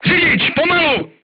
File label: voice message drive slowly, crew tanks czech